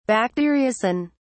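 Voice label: "bacteriocin", British English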